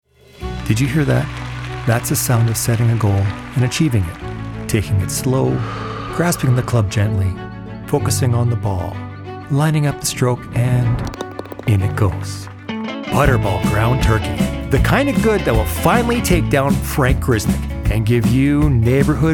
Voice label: commercial